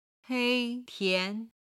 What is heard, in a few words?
"hei1tian2", chinese